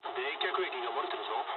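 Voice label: damaged water mood low, speech japanese; War Thunder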